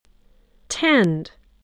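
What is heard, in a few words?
"tend", English word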